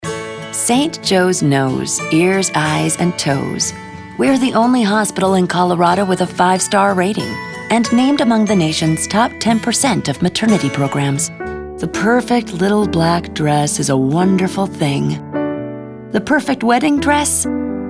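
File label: Commercial voice over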